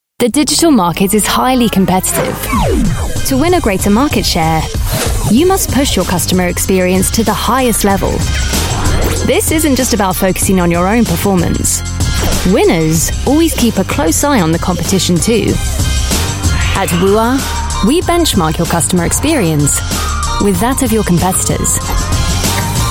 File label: UK English Corporate Explainer; WUA